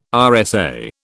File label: "RSA", British